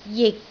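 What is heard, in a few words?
"jik6", Cantonese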